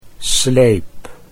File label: "sleep", Dutch